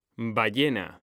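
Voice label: "ballena", Spanish, male; mekanta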